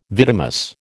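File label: "vidimus", American English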